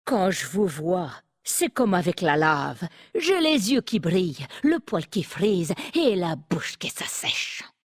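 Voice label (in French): French Femme Flirt; Chaud; Nain sombrefer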